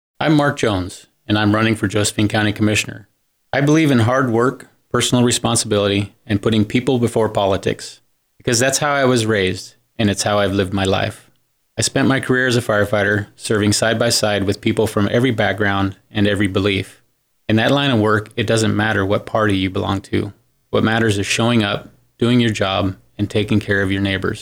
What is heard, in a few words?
radio ads